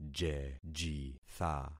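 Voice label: "jejitha", concatenated syllables; Affixing experiment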